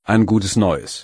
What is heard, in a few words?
new year, german; Ein gutes Neues